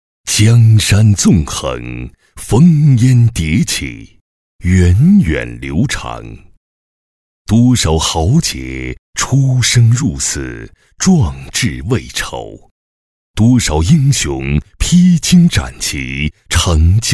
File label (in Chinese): 男 散文诗歌 舒缓大气; 三国